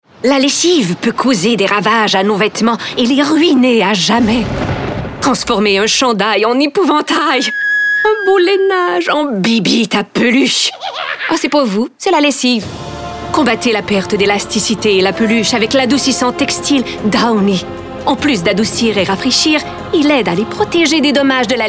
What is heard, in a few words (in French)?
Publicité Downy Lessive